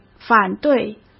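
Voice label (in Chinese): "反对", Mandarin Chinese; chinese-flashcards; hsk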